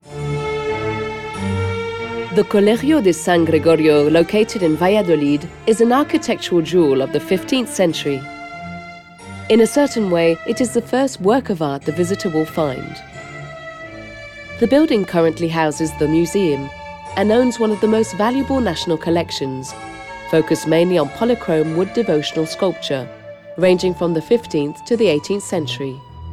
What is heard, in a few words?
Audioguide English